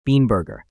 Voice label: Bean Burger echo